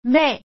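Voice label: "be̍h", Hokkien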